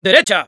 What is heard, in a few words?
head right, es; "¡Derecha!"; Spy